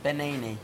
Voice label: "family", Pingelapese

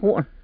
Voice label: "guan", tlacolula; bull

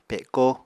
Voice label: "pekó", apatani

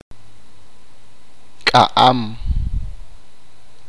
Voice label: cuerda, achi

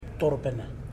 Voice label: "hot", Ipili

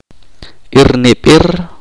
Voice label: "ver", chorti

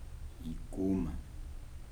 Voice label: "dry", Kibembe

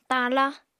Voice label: "tala", Apatani

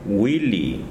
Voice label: "una", tsesungun

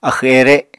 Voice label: "axw-ere", Apatani

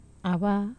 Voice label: "ava", Wamesa

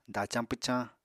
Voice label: "dacañ pwcañ", Apatani; Household Items

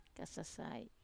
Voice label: "kasasai", Wamesa

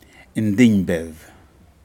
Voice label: fish blue Spotted Large Eye, Aneityum

